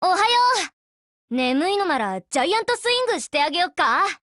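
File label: Japanese, Voice; GF Alarm; PP19Mod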